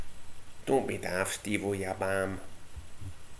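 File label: "stevo", slang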